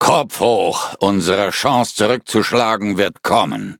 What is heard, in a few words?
defeat, de; Cookie Run